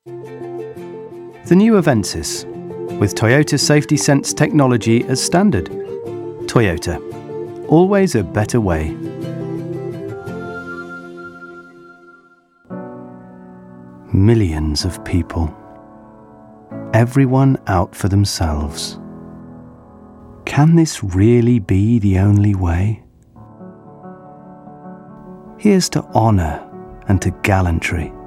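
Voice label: commercial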